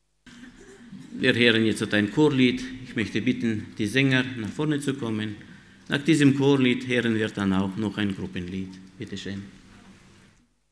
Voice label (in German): Ansage; Lagerversammlung 2015; Krankenheilung